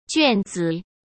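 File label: "卷子", Mandarin Chinese; hsk; chinese-flashcards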